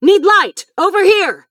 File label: Female Night Elf Attack